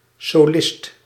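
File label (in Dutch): "solist", Dutch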